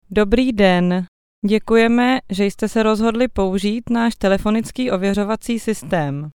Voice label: Czech; קריינות